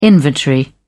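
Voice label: "inventory", British English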